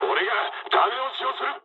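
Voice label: player killed enemy mood med, speech japanese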